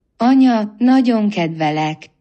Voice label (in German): Ungarisch